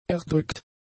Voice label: "erdrückt", German; adjectives

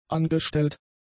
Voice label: "angestellt", German; adjectives

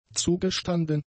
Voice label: "zugestanden", German; adjectives

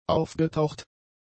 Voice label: "aufgetaucht", German; adjectives

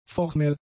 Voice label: "formell", German; adjectives

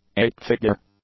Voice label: "eight-figure", English; adjectives

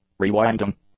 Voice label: "rewinding", English

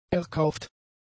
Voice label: "erkauft", German; adjectives

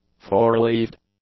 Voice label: "four-leaved", English; adjectives

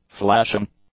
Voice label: "flashing", english; adjectives

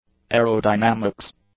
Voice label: "aerodynamics", English

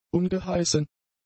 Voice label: "ungeheißen", German; adjectives

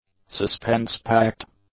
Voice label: "suspense-packed", English; adjectives

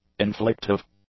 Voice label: "inflictive", English; adjectives

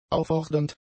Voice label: "auffordernd", German; adjectives

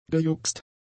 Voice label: "gejuxt", German; adjectives

